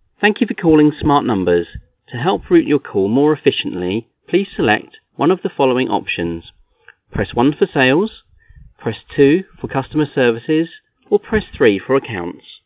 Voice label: Example IVR